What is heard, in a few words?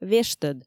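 "вештӧд", Komi, female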